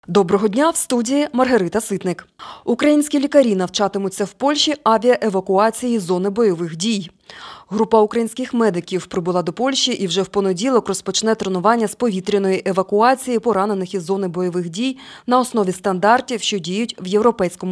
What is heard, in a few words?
Ukrainian News